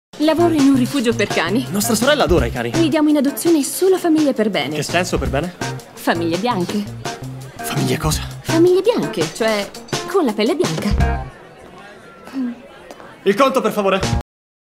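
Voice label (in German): Italian, Fremdtake Variabel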